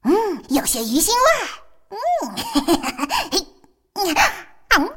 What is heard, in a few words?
joke zh; Fizz